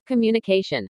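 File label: "communication", English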